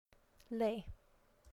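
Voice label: "lei", Hawaiian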